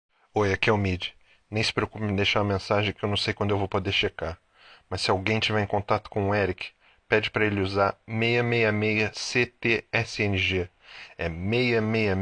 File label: voicemail